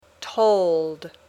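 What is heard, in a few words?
"told", English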